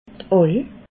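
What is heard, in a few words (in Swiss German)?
"aal", Alsatian, Bas-Rhin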